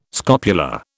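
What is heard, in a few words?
"scopula", British English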